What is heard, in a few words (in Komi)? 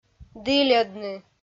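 "дільӧдны", Komi, female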